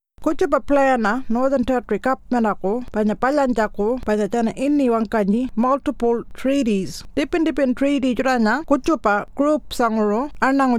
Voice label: Pintupi Luritja; Mutiple Treaties